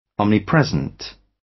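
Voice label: "omnipresent", British English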